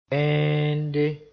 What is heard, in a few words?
"bẽende", Mooré